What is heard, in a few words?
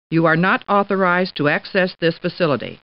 Computer Voice; Star Trek